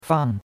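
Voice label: "fang", Chinese, fourth tone; Cours-de-Chinois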